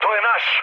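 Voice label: Serbian player friendly fire mood med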